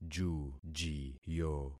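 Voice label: "jujiyo", concatenated syllables; Affixing experiment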